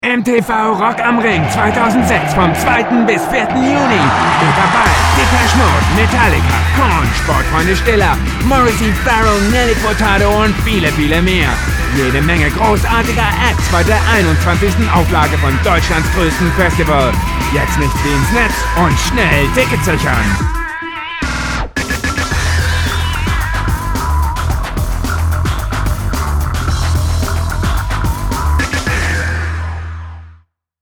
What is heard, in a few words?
Radio Sting; MTV